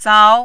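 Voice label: "zao2", mandarin, rising tone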